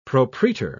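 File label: "propretor", British English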